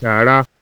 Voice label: sweeping, Hausa

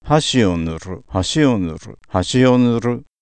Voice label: Japanese Pitch Accent Minimal Triplet

